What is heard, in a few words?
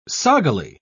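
"soggily", British English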